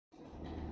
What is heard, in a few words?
voice message